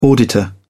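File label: "auditor", British English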